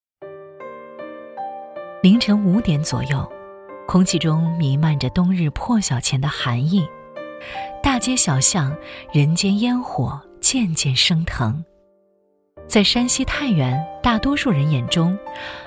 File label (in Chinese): 女 纪录片 走心舒缓; 太原味道